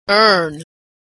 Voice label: "earn", pronunciation